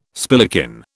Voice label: "spillikin", British

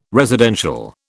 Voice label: "residential", British

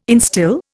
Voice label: "instil", Indian

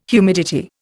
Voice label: "humidity", Indian

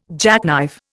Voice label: "jackknife", Indian

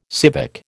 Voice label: "civic", American

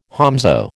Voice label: "whomsoe", American

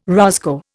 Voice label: "roscoe", Indian

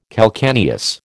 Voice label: "calcaneus", American

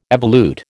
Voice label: "evolute", American

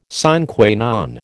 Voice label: "sine qua non", American

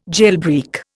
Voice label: "jailbreak", Indian

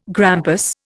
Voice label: "grampus", Indian

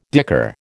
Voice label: "dicker", American

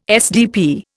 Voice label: "SDP", Indian

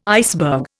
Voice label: "iceberg", Indian

